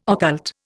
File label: "occult", Indian